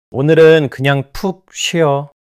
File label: neutral